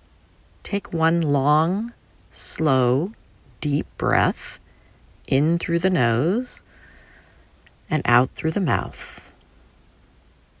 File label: Meditation Mindful Breathing